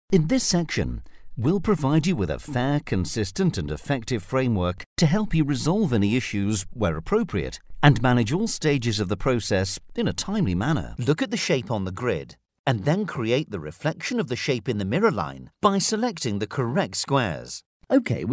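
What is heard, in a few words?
English, UK, male, elearning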